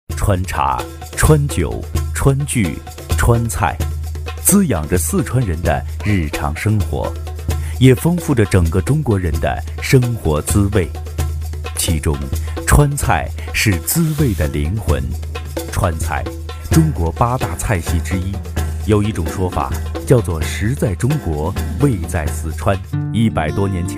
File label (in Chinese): 男, 纪录片, 舌尖范; 川菜在民间